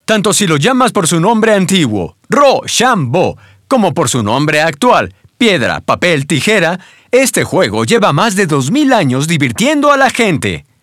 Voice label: Spanish - roshambo welcome vo